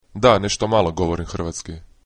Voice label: yes a little, croatian

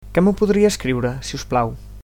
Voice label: "write", Catalan

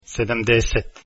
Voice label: 70 croatian

